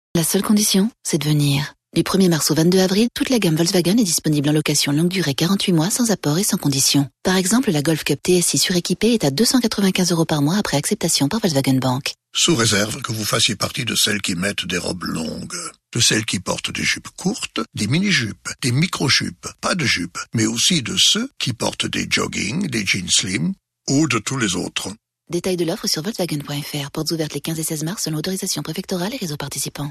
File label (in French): radio spot